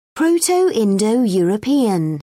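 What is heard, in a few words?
"proto-indo european", British English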